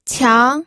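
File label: "qiang", Mandarin Chinese, second tone; pinyin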